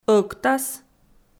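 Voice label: "ӧктас", Komi, female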